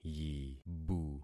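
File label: "yibu", concatenated syllables; Affixing experiment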